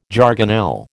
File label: "jargonelle", American